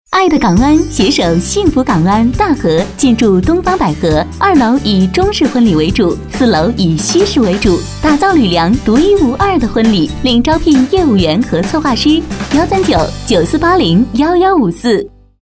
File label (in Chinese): 女 电台广告